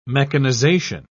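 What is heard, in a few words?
"mechanisation", British English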